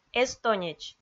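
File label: "эстонеч", Komi, female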